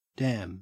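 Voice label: "dam", Australian English; pronunciations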